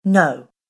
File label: "No" Stern Female Voice; Recorded Voice Sound Effects